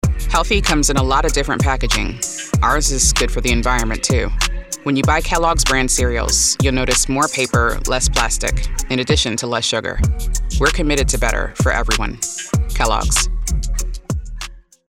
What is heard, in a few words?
Understated Flat Commercial, African American voice; Kelloggs